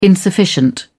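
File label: "insufficient", British English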